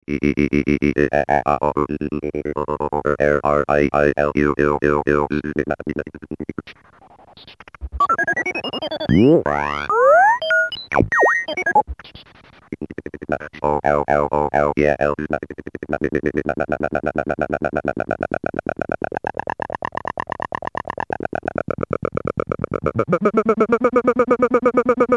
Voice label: phoneme sounds